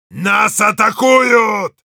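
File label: Heavy incoming, russian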